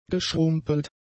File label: "geschrumpelt", German; adjectives